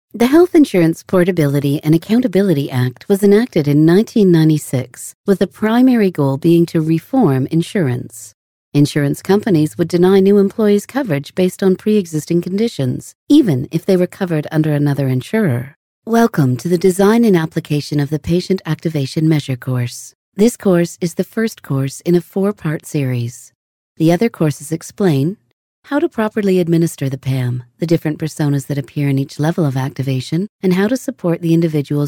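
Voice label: english us female informative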